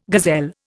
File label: "gazelle", Indian